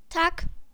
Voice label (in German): Danke, islaendisch